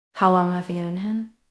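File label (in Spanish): Voice Acting es F, Spanish, female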